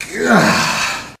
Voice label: "gah", g-rated swears; Voice; expletives

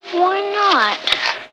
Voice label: "why not", kid; questions

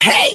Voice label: "hey", Voice; ooh ahh

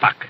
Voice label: "fuck", expletives

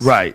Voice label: "right" male

